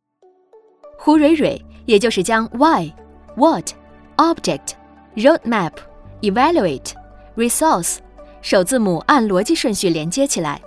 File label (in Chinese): 课件干练职业风格